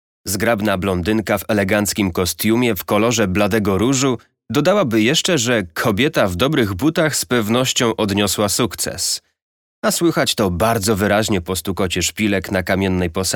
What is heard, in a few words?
ebook